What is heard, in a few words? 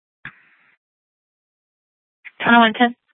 Sheriff, scanner P25